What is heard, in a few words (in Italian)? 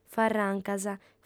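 "farrancas", lingua sarda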